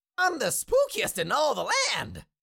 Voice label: Cartoon little monster voice i am the spookiest in all the land